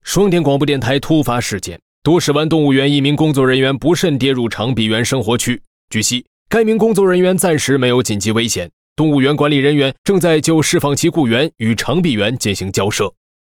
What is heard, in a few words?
Mandarin; 开场白